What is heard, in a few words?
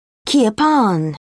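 "kirpan", British English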